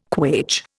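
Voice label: "quaich", Indian English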